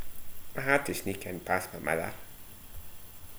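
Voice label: "mither", slang; Ah huvnae seen ye aboot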